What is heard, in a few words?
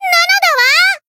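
Voice damaged, Japanese; thillelille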